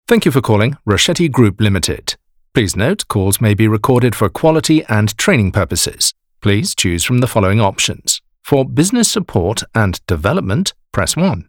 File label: Phone prompt